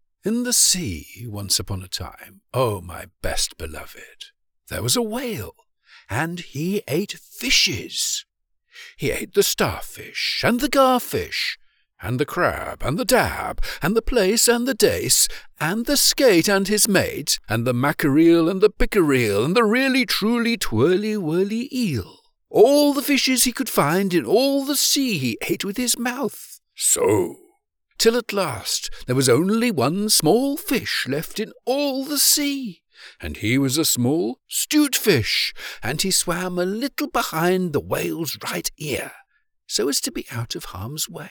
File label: Audiobook Narration, British voiceover; Kipling; How the Whale Got his Throat